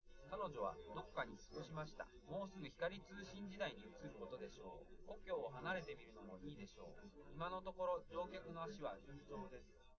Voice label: male, live rec; SiSEC11; underdetermined

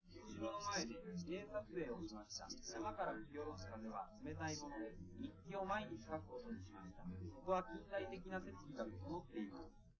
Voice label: male live rec; SiSEC10; underdetermined

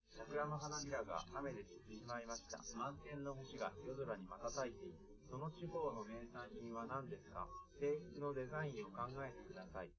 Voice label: male live rec; SiSEC10; underdetermined

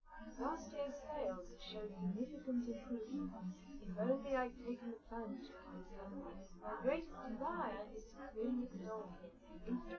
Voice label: female live rec; SiSEC10; underdetermined

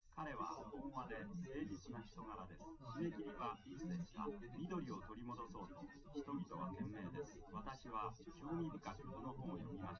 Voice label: male, live rec; SiSEC08; SiSEC underdetermined

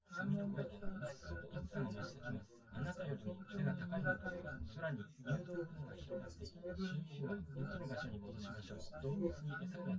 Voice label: male synth conv sim; SiSEC10; underdetermined

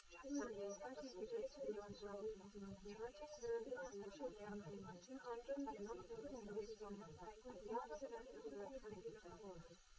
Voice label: female liverec; SiSEC11; underdetermined

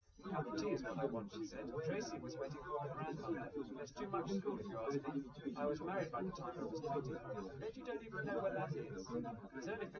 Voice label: male, liverec, src; SiSEC08; SiSEC underdetermined

